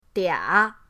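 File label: "dia3", Chinese; pinyindct-open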